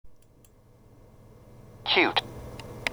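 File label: "cute", English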